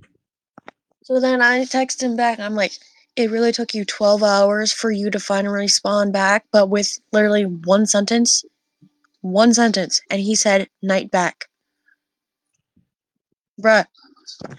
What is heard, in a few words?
voice message; Discord; Neko Haven; vent